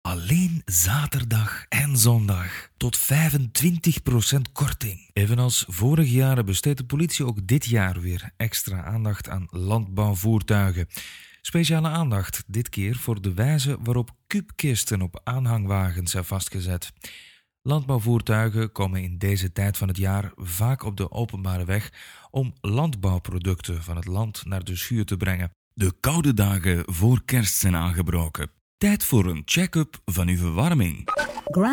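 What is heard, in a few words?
ACC M VLAAMS, Flemish accent, male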